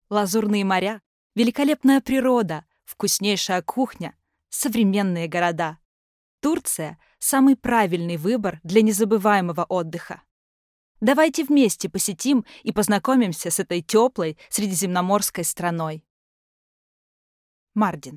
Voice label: Russian, F, Explainer

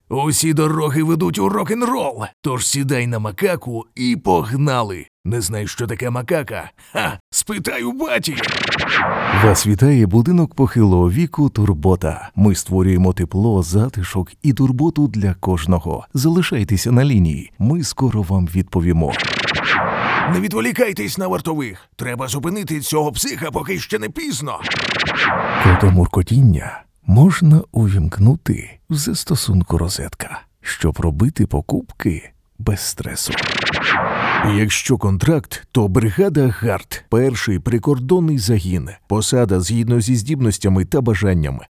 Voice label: Ukrainian M Showreel

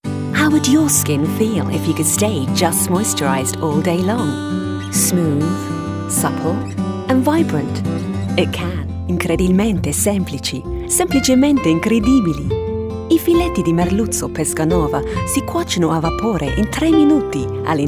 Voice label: English F, accent of italy

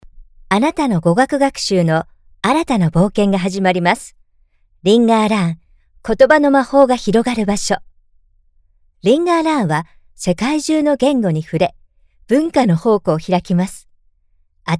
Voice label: Japanese, F, eLearning

